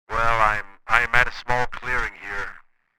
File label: Walkie talkie conversation short sentence